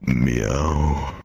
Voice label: deepman meow